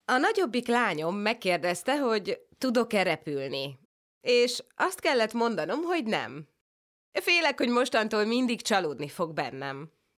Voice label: hungarian f commercial; voice demos